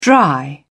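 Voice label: "dry up", British English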